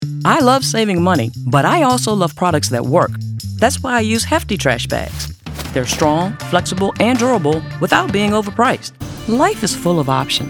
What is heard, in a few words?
Commercial